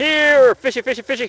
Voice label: fishy, positive; Splashdown; XBOX